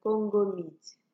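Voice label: "congo meat", Nigerian Pidgin, female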